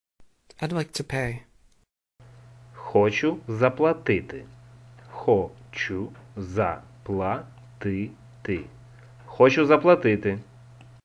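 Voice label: Ukrainian I would like to pay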